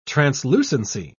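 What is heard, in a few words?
"translucency", British English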